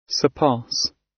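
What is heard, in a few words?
"surpass", British; Technology; Công nghệ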